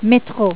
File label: "subway", French